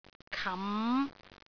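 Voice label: "kam5", Cantonese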